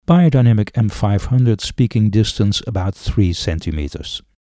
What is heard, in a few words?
Beyerdynamic M500